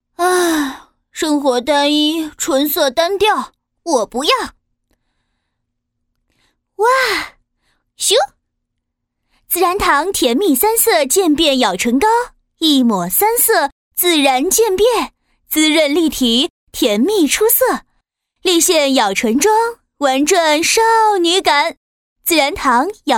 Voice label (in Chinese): 女-广告; 自然堂咬唇膏